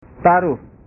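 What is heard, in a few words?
"taruh", Indonesian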